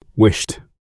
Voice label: "wished", English